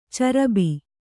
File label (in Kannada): "ಚರಬಿ", Kannada